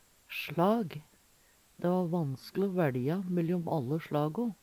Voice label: "slag", Numedalsmål

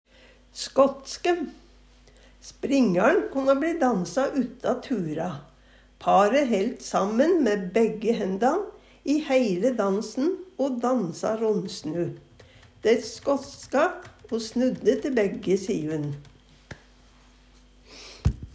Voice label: "skåtske", Numedalsmål

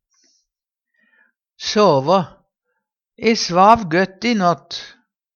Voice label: "sava", Numedalsmål; E svav so dåle i nått.

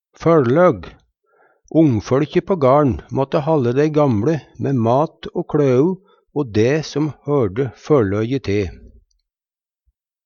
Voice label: "førløg", Numedalsmål

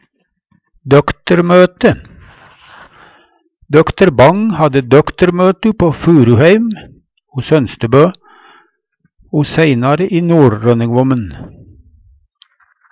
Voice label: "doktermote", Numedalsmål

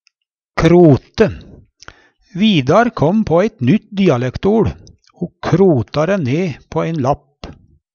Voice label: krote, Numedalsmål

